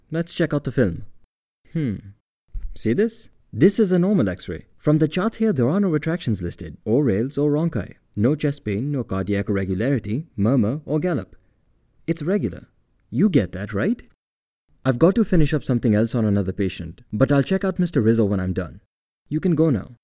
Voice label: Medical Narration Indian Accent